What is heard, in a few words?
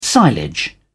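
"silage", British English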